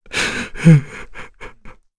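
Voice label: Vox Sad, English; Dakaris; kingsraid; voices heroes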